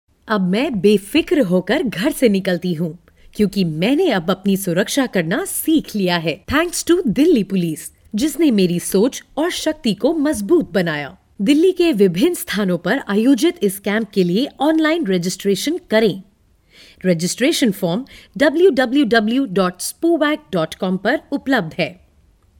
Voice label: VO AD; DELHI POLICE

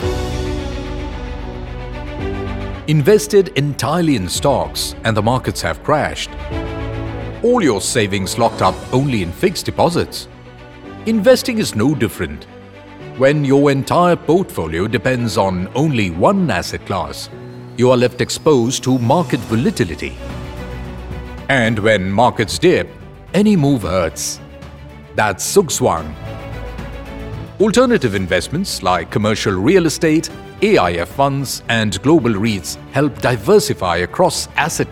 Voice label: ENGLISH PROMO

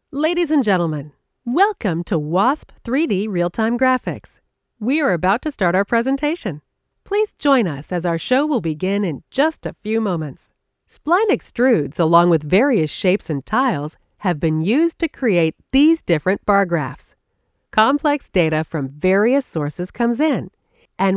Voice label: explainer

